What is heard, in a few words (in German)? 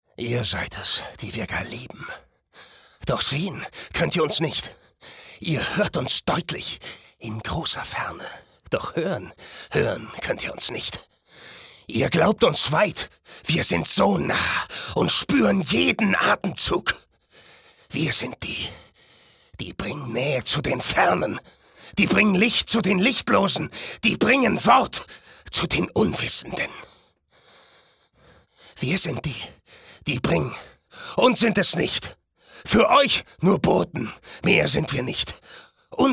aggressiv, maenner